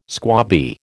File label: "squabby", American